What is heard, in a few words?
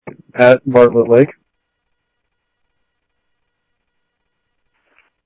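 Sheriff Lake Patrol, scanner audio trunk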